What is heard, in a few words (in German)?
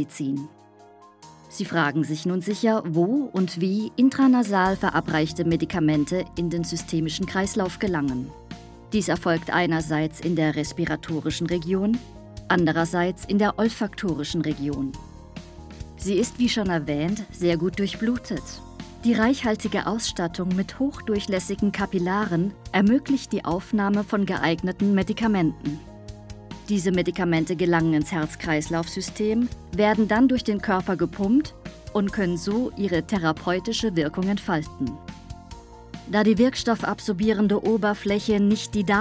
sprachbeispiel e-learning